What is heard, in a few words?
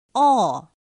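"ò", Chinese; pinyin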